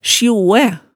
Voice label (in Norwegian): "sjoæ", hemsedalsmaalet